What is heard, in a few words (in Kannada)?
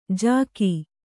"ಝಗ", Kannada